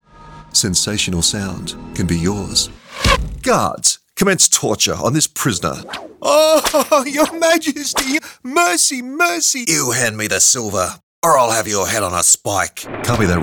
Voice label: ACTING